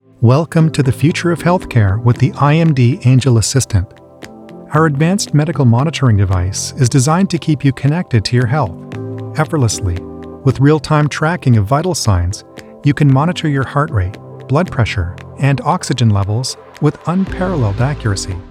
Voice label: E Learning